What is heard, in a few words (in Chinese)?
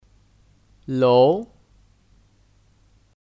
"楼", Lóu; Kata benda; Gedung; Lantai